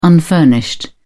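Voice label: "unfurnished", English, uk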